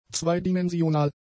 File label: "zweidimensional", German; adjectives